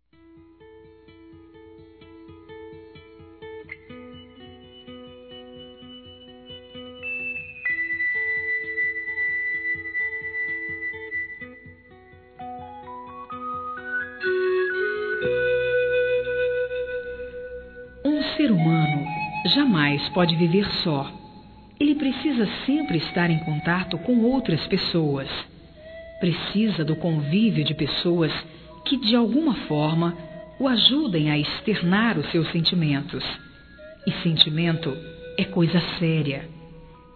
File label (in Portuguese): Conselho Geral, fem